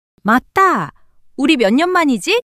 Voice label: surprise, monologue

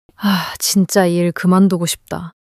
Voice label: anger

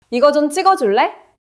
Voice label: neutral, monologue

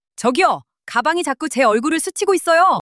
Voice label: anger; monologue

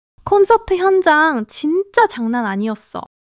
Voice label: excitement

